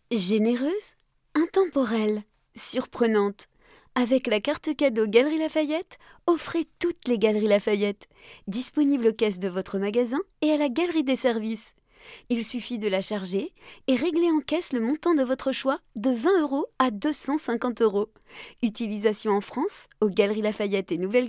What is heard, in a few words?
french